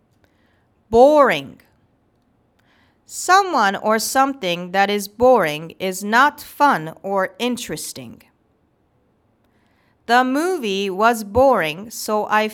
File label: boring